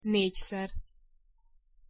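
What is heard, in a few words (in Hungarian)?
"négyszer", Hungarian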